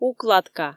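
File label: "укладка" Komi, female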